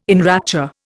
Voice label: "enrapture", Indian